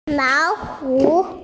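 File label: "tiger", Mandarin Chinese, female; lao3hu3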